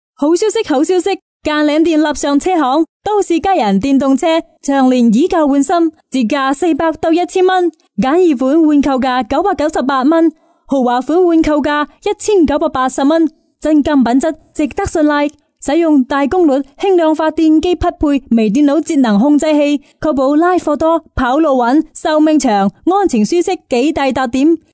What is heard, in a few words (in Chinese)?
粤语促销